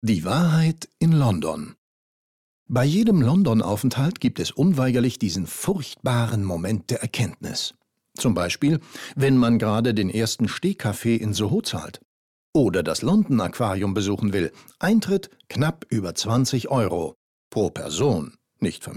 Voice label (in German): erzaehlung